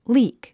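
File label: "leak", English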